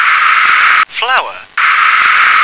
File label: "flower", English